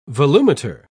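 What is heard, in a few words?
"volumeter", British English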